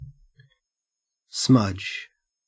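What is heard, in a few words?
"smudge", Australian English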